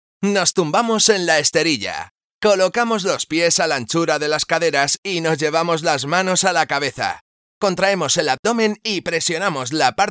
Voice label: spanish voiceover teleshopping recording locuciones teletiendas